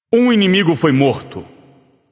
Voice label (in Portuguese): notify slain enemy, vox caster portuguese